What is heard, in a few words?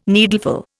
"needleful", Indian